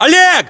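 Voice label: "oleg", battlechatter, Russian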